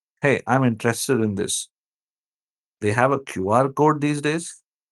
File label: voice message; EMI